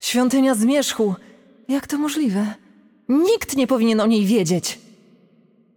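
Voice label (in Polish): Game Outro, Polish; VO; pl PL; bloom minigame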